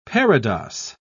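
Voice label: "parados", British English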